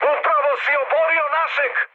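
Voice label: Serbian player killed ally mood high, speech; War Thunder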